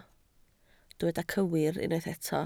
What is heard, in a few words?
"cywir", Welsh